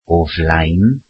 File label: welsh in front of